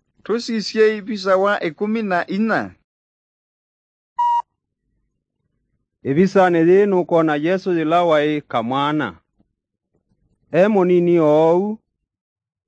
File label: kikamba drama; good news; bible